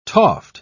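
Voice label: "toft", British English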